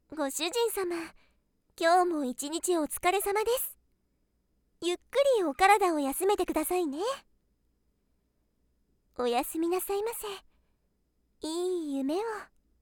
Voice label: おやすみボイス

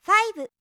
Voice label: 「ふぁいぶ」, 明るい声

